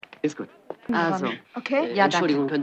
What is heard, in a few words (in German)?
Komiker Frau Wahlkampfveranstaltung, Stimmproben; Der Stadtneurotiker